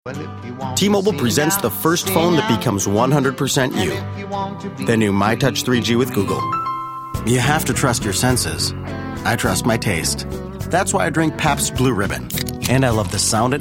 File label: Commercial Voice